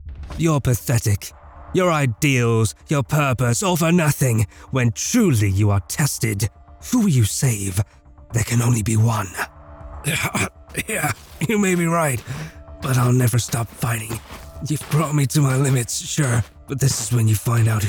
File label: Character